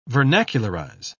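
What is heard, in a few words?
"vernacularize", British English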